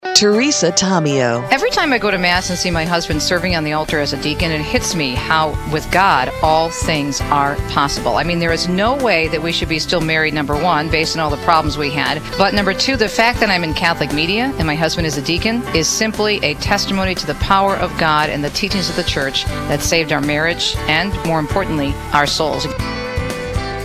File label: Network Promo; Catholic Connection